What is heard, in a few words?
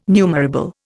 "numerable", Indian